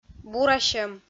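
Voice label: "бурасьӧм", Komi, female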